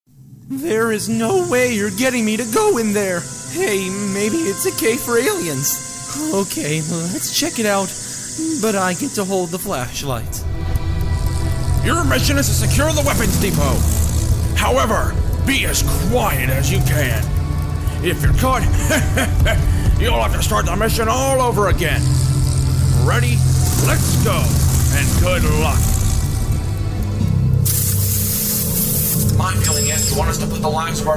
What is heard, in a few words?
character